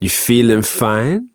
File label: Ambient Barks, en; Warframe; Mall Romance; Quincy